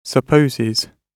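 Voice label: "supposes", British English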